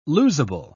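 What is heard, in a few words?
"losable", British English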